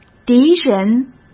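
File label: "敌人", Mandarin Chinese; hsk; chinese-flashcards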